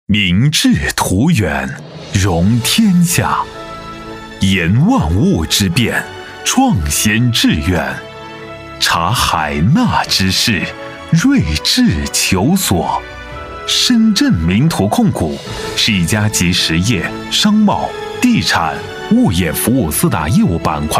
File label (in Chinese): 男 企业宣传片 激情大气; 集团企业宣传类型